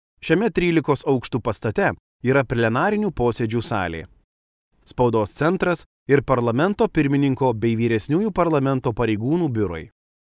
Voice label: male lithuanian voice over talent narrative

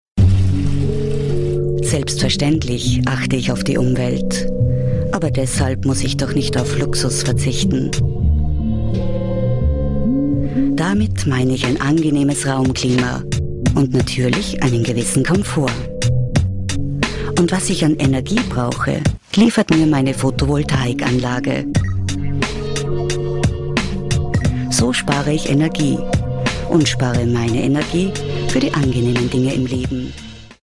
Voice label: female german voice over sales

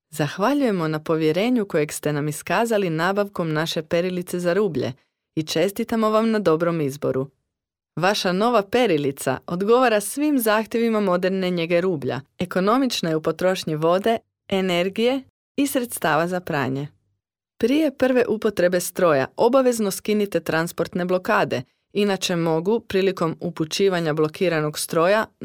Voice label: female croatian voice over talent narrative

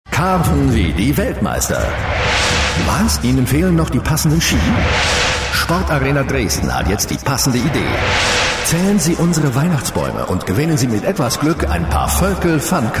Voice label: male german voice over talent sales

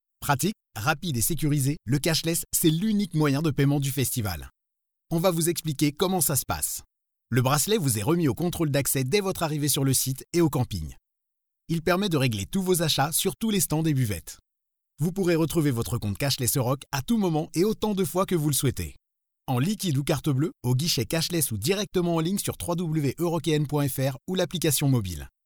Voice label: male french voice over talent narrative; france

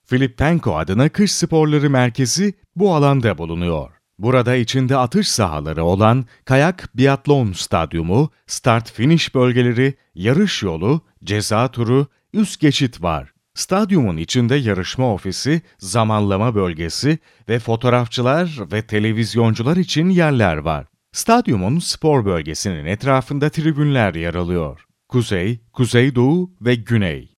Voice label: male turkish voice over talent narrative

